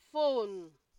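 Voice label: "phone", Nigerian Pidgin, female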